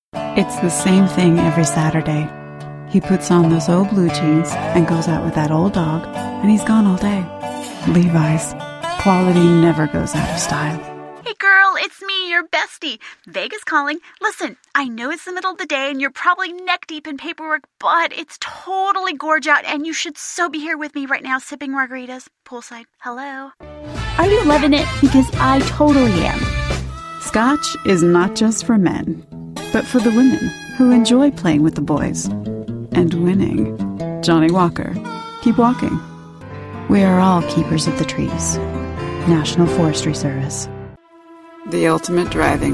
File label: Commercial